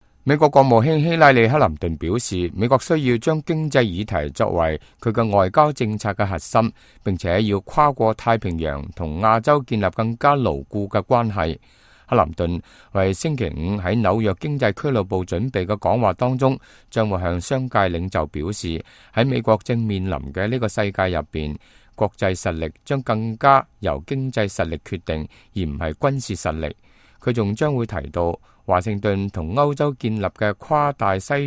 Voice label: cantonese, news